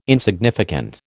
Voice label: "insignificant", American